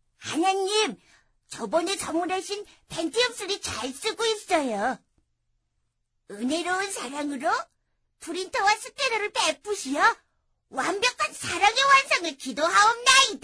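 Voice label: Korean female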